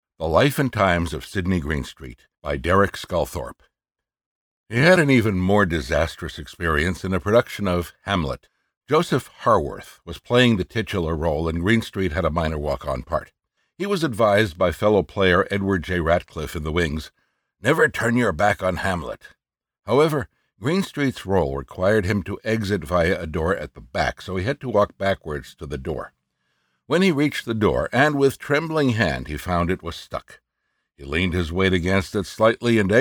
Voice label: Audiobooks